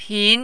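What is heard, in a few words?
"pin", Mandarin, second tone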